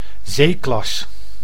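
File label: "zeeklas", Dutch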